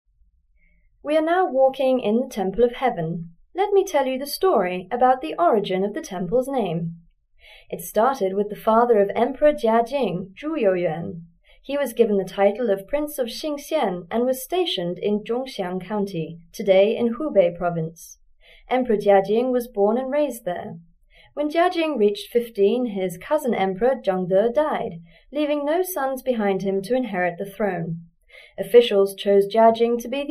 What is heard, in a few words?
US; foreign